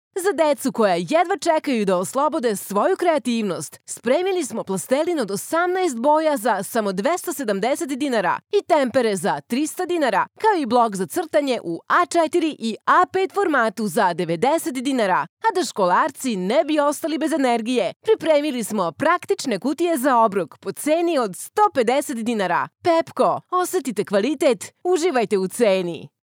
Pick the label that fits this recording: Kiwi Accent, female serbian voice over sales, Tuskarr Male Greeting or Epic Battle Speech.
female serbian voice over sales